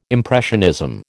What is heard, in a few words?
"impressionism", American